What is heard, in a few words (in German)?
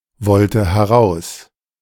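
"wollte heraus", German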